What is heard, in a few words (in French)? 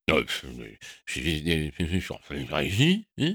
marmonne